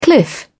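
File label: "cliff", British English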